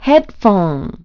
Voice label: phone, announce voice; Nintendo; July 2020 Gigaleak